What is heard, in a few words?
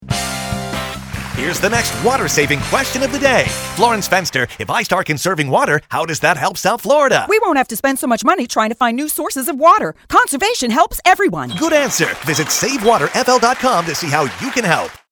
water conservation english